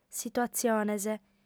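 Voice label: "situatziones", lingua sarda, female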